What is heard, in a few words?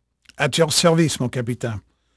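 French male, voice; Pirates Of The Caribbean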